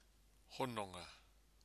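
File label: "hononga", Māori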